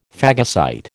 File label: "phagocyte", American